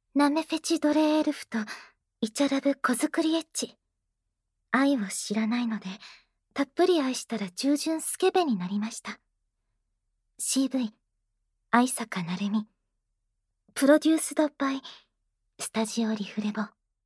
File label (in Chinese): 標題朗讀